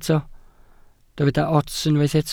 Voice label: "ots", Welsh

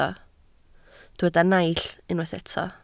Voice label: "naill", Welsh

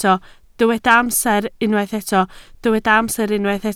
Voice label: "amser", Welsh

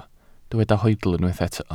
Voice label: "hoedl", Welsh

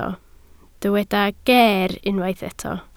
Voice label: "geer" Welsh

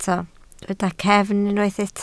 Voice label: "cefn", Welsh

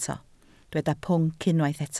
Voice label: "pwnc", Welsh

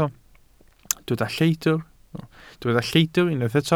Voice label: "lleidr" Welsh

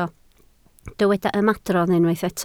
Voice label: "ymadrodd", Welsh

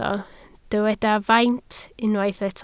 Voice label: "faint", Welsh

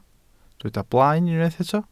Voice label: "blaen", Welsh

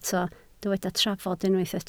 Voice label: "trafod", Welsh

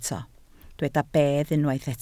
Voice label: "bedd", Welsh

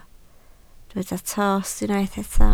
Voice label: "tost", Welsh

